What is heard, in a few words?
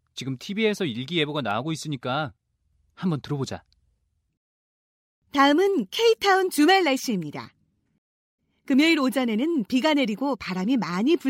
korean